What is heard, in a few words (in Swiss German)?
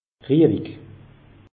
"riewig", alsace; meteo